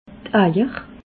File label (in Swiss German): "eier", alsace